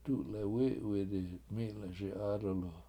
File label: Both Hands Are Shaking, Washo; dulewItwidi mI7leSi 7AAdulu